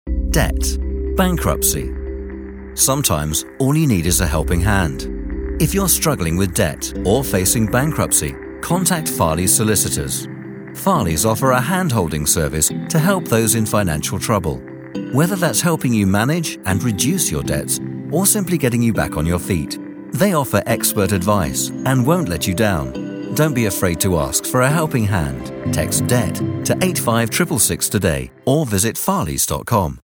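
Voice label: radio Ad, British Male Voice Over; Farleys Helping Hand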